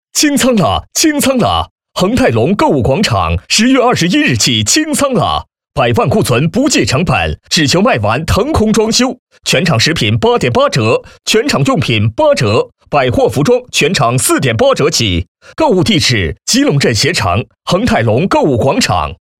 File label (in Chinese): 男 促销 清仓啦清仓啦